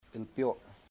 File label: tiger, chamacoco